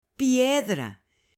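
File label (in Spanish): "PIEDRA", Spanish, female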